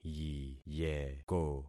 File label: "yiyeko", concat syllables; Affixing experiment